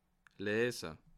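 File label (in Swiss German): "lese", Bas Rhin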